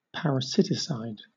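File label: "parasiticide", British English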